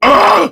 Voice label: Death Prime Grineer Male; Tau; Battle Barks